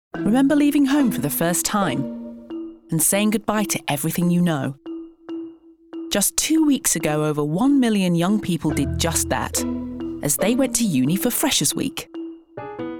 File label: narrative; Freshers